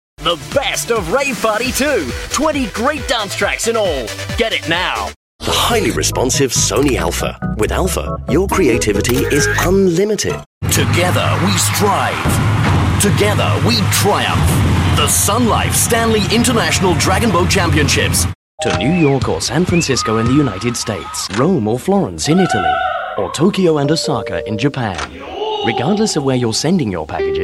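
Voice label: British English, TV commercial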